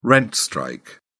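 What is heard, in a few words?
"rent strike", English, British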